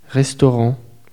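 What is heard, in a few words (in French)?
"restaurant", French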